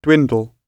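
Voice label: "dwindle", British English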